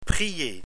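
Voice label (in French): "prier", French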